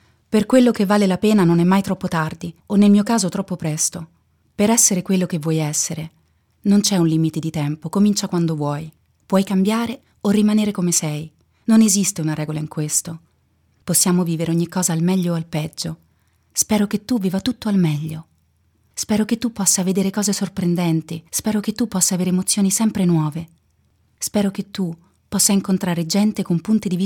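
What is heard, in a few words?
Italian Monologue Narration Audiobook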